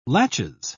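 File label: "laches", British English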